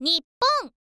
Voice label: "nippon", info girl voice